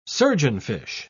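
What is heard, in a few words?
"surgeonfish", British English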